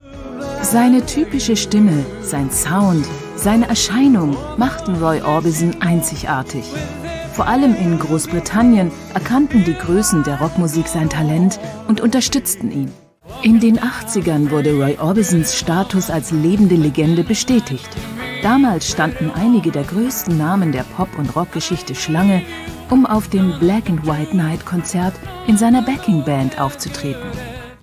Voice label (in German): Kommentar, frauen